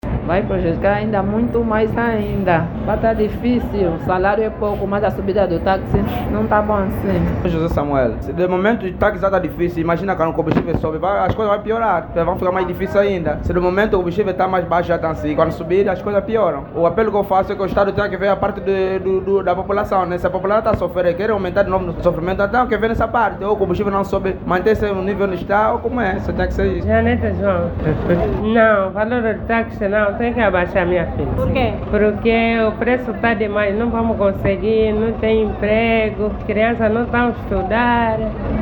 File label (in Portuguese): VOX POP; COMBUSTIVEL; subvencao do preco dos combustiveis